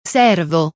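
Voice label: "servo", Italian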